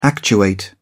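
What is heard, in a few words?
"actuate", English, British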